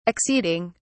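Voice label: "acceding", English, British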